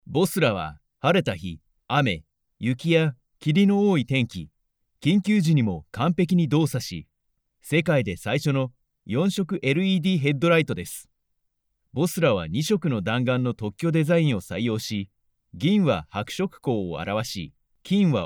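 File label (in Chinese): 外籍日语男-灯宣传片; LED灯品牌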